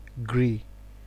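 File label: "gree", Nigerian Pidgin, male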